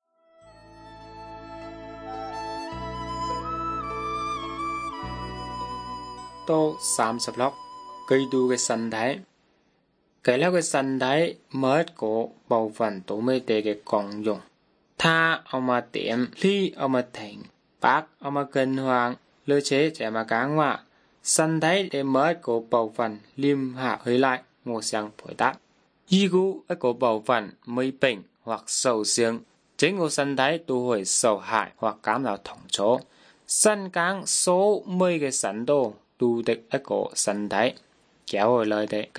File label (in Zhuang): good news zhuang nanning fushu